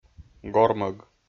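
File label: "гормӧг", Komi, male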